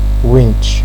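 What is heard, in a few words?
"winch", Nigerian Pidgin, male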